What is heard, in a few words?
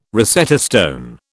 "Rosetta stone", British